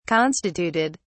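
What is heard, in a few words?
"constituted", English, British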